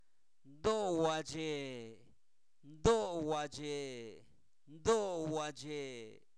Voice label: en la tarde, Zapoteco, santo domingo albarradas; adverbios; INALI

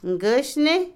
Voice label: Otomí, mujer; encías; Cuerpo; INALI

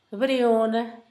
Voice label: tuna nopal, Zapoteco, Asunción Ocotlan; Comestibles; INALI; Haspelmath

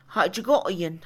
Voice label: olvidar, Mixe, Mujer; INALI

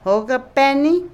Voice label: manzana, Otomí, mujer; INALI

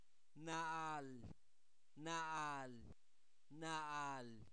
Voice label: grueso, Zapoteco, santo domingo albarradas; adjetivos; INALI

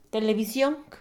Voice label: televisión, Mixe, Mujer; Moderno; INALI

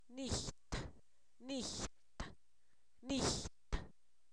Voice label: caña, Zapoteco, san miguel albarradas; comestible

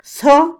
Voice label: "asesinar", Otomí, mujer; Vocabulary; INALI

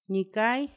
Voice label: quien, Paipai; Vocabulary; INALI

